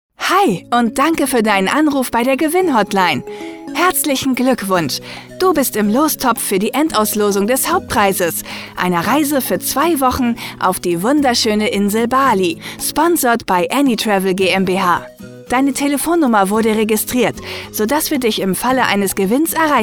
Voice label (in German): Telefonansage